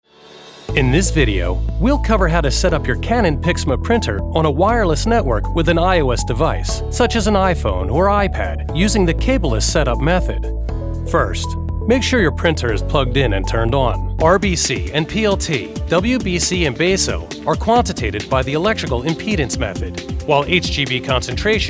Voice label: E-Learning